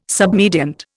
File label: "submediant", Indian